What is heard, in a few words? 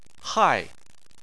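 "hai" fourth tone, Chinese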